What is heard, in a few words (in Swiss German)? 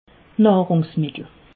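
"nahrungsmittel", Alsatian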